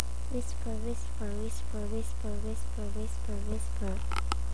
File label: whisper